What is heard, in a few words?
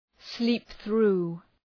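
"sleep through", English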